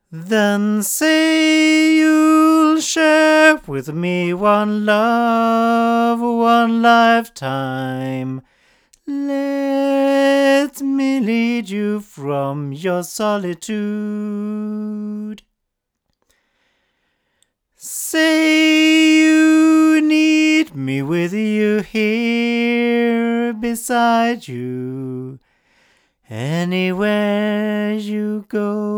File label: male; JJLabs C6000 Pro; microphones